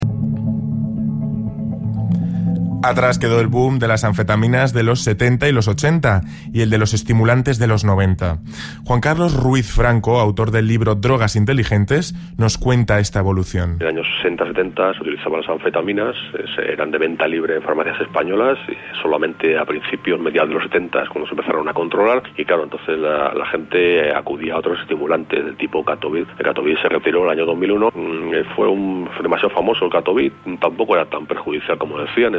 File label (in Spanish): entrevista radio